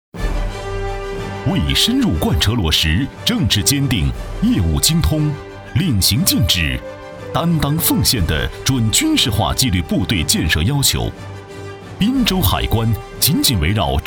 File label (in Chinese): 男 专题 浑厚; 滨州海关